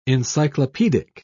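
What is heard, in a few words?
"encyclopaedic", British English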